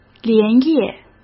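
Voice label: "连夜", Mandarin Chinese; chinese flashcards; hsk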